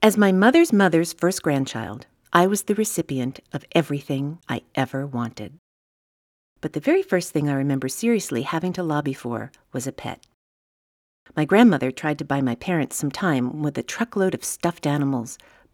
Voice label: BIRDIE VOX no static